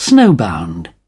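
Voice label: "snowbound", British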